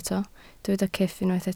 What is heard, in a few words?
"cyff", Welsh